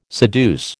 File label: "seduce", American